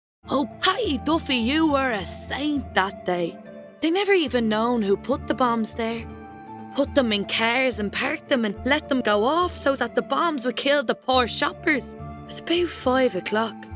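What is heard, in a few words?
English, Character, Irish; GoLocalise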